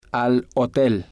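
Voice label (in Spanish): al Hotel, spanish, male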